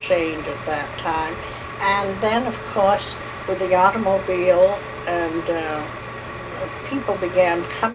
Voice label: Oral history with 85 year old white female Concord Massachusetts; american english dialect